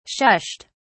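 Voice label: "shushed", British English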